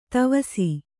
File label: "ದವಸ", Kannada